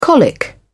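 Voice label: "colic", British English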